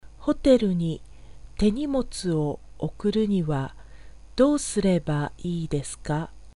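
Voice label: Japanese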